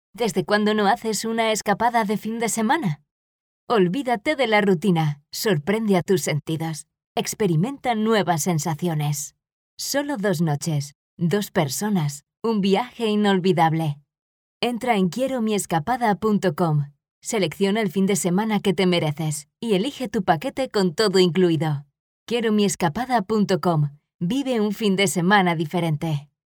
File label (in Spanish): female cast anuncio radio